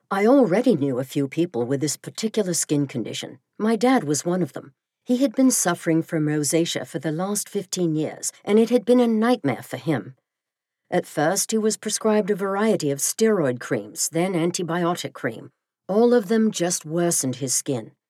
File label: British Accent; Memoire Rosacea